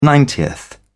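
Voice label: "ninetieth", British English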